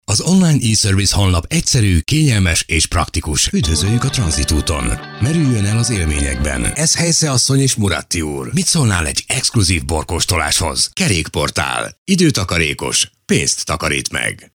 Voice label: corporate and elearning style hungarian